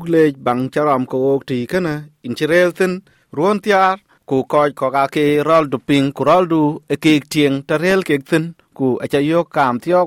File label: dinka, podcast; SBS Dinka